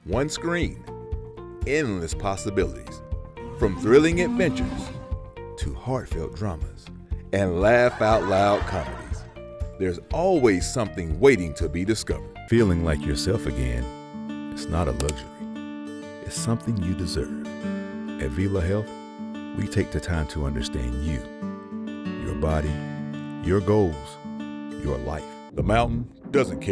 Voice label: Commercial

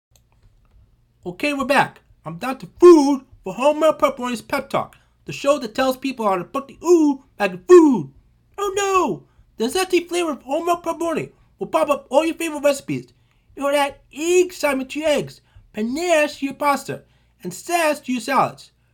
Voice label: Commercial

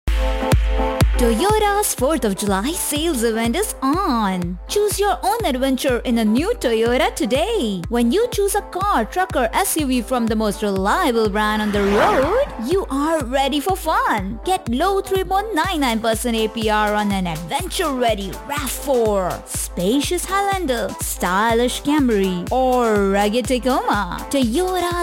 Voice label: ad; Toyota

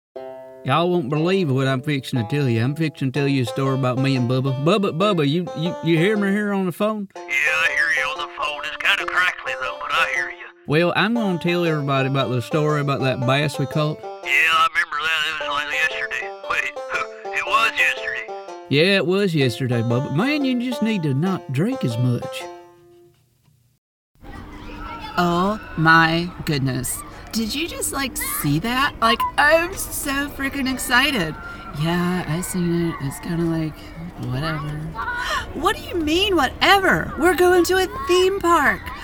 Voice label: Character Reel

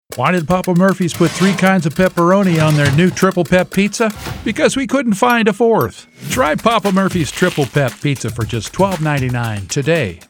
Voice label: Voice of Commerical

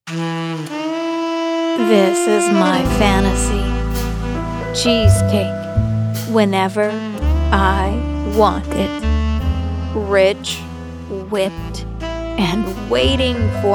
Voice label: Commercial

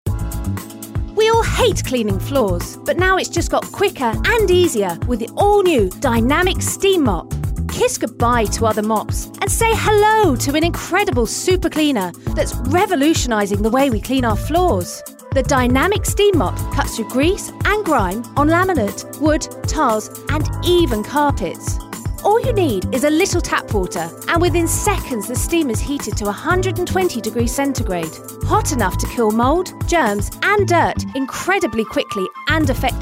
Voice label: commercial; professional voice over artist

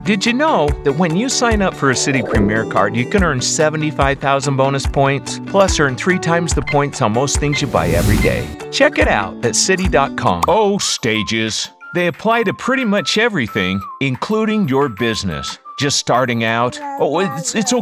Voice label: Commercial

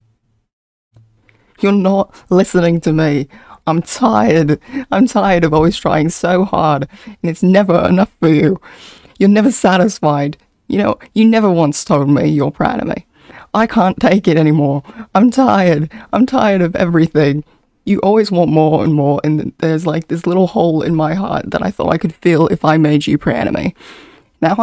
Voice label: emotion monologue australian

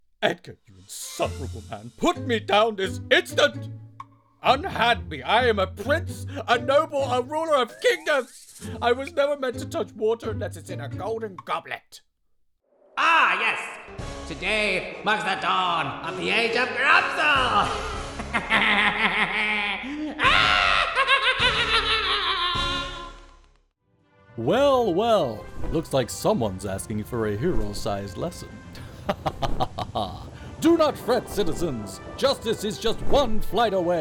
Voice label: Animation

